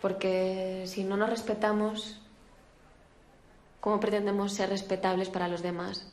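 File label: Voice Acting es Female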